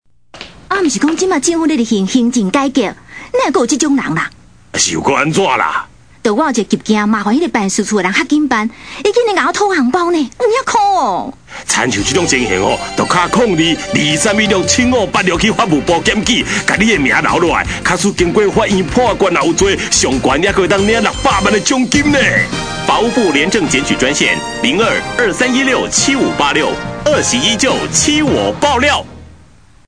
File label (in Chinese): 法務部爆料篇 台語版